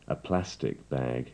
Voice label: "a plastic bag", English